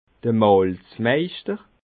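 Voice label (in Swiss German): "malzmeischter", Alsatian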